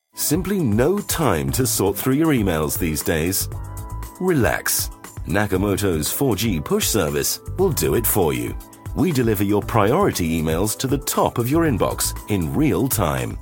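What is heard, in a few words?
British English, TV commercial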